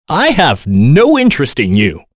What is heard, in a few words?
Vox Skill, en; Kain; kingsraid; voices heroes en